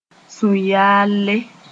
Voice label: "sũ-yaalle", Moore; nausea

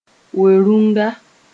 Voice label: "we-rũnga", moore

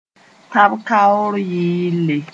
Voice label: "tãp-kaoor yɩɩlle", moore; national anthem

